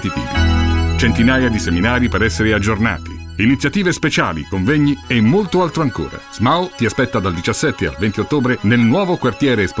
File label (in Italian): Spot radio innovazione; Smau